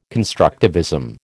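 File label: "constructivism", American English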